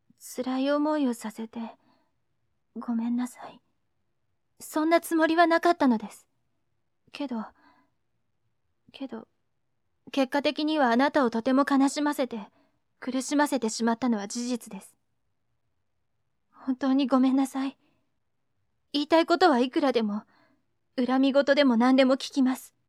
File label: girl; 悲しい感じの台詞。女の子。; 無表情っぽい